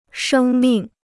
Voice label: "生命", Chinese

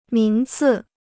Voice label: "名次", Chinese

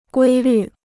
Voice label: "规律", Chinese

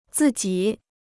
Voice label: "自己", Chinese; 自己 (zì jǐ): oneself; one's own

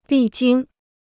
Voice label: "历经", Chinese

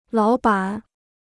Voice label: "老板", Chinese

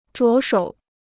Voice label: "着手", Chinese

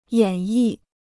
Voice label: "演绎", Chinese

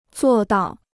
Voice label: "做到", Chinese

